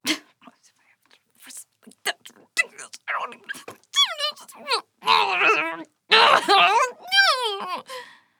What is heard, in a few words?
Grumble; Skye